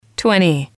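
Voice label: "twenty", English, female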